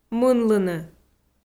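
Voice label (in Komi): "мынлыны", Komi, female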